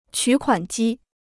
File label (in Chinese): "取款机", Chinese